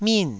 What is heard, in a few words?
"min4", taiwanese